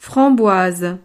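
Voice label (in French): "framboise", French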